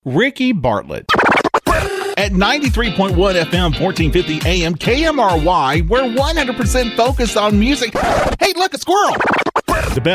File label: Radio commercial